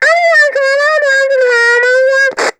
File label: Walkie Talkie Female Chatter; Communications